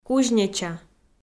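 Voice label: "кузнеча", Komi, female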